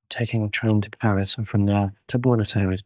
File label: Voice Acting, es